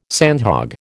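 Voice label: "sandhog", American English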